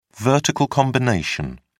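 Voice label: "vertical combination", British English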